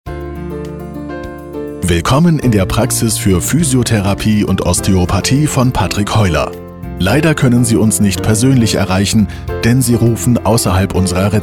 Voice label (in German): Anrufbeantworter Physiotherapie Praxis